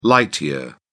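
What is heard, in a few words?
"light year", English, British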